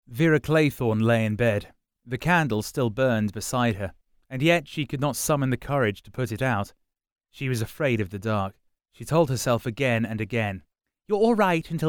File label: Audio Book